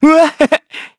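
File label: Vox Happy, Korean; heroes; Evan; kingsraid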